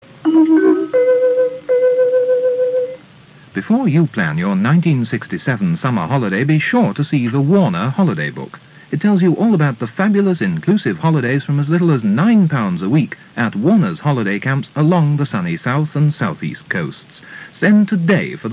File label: commercial; Warners Holidays